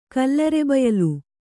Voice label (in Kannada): "ಕಲ್ಲರೆಬಯಲು", Kannada